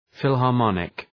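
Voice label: "philharmonic", English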